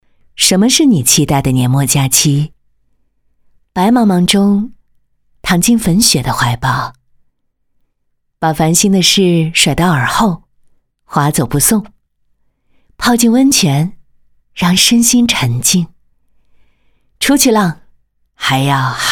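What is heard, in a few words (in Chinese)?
女 高端质感旅游邀约广告, 国语配音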